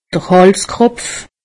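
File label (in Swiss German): "halskropf", Alsatian; medecine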